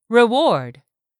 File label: "REWARD", english; 3000 words